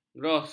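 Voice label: "gros", Malay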